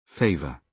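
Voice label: "favour", British English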